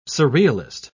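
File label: "surrealist", British English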